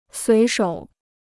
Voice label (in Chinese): "随手", Chinese